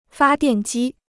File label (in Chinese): "发电机", Chinese